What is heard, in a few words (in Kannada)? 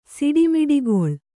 "ಸಿಡಿಮಿಡಿಗೊಳ್ಳು", Kannada